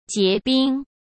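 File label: "结冰", Mandarin Chinese; hsk; chinese flashcards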